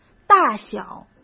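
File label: "大小", Mandarin Chinese; chinese flashcards; hsk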